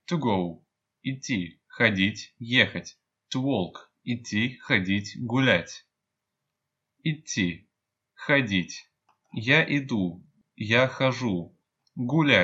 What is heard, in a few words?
to go, russian